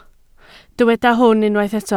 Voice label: "hwn", Welsh

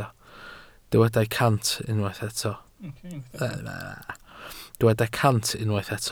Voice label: "cant", Welsh

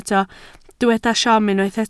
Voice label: "siom", Welsh

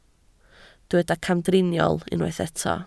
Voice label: "camdriniol", Welsh

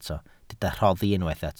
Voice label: "rhoddi", Welsh

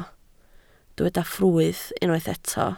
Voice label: "ffrwyth", Welsh

